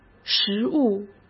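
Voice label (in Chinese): "实物", Mandarin Chinese; chinese flashcards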